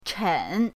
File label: "chen3", pinyin